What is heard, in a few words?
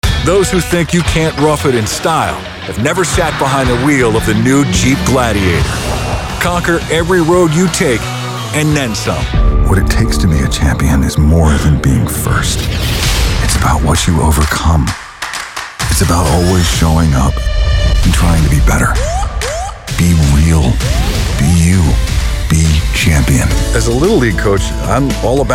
english male voices american accent